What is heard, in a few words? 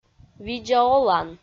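"видза олан", Komi, female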